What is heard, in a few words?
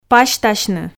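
"пасьтасьны", Komi, female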